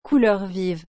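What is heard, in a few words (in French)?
"couleur vive", French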